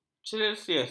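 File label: "celsius", Malay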